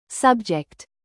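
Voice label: "bass", noun, British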